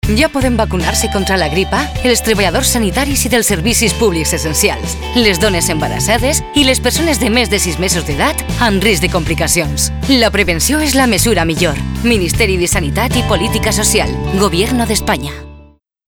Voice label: VALENCIANO

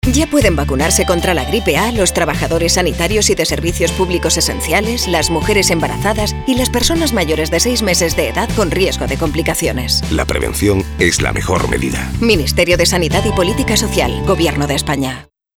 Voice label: CASTELLANO

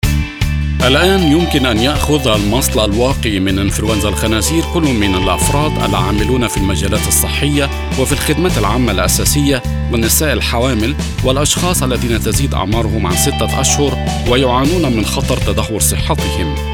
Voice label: ARABE

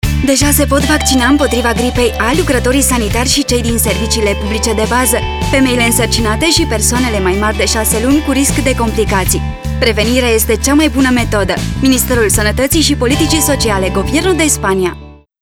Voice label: RUMANO

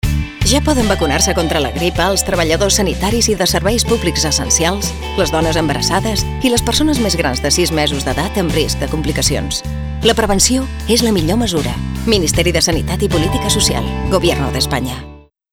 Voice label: CATALAN

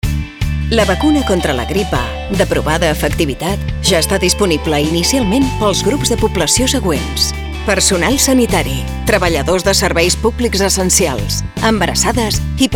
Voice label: CATALAN

